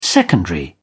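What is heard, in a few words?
"secondary", British English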